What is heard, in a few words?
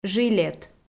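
"жилет", Komi, female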